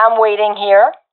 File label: waiting here, professional fem; vo; Counter Strike Model Pack; CS:GO